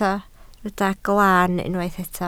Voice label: "glaan", Welsh